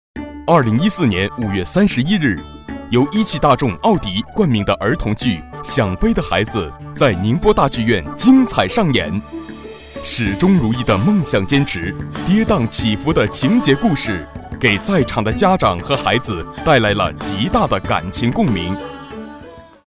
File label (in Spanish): Locutor varón chino